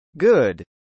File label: "good", English; english sounds review quiz